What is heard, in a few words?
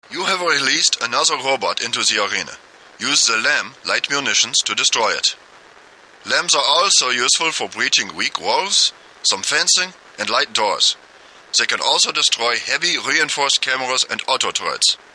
unused combat training arena transmission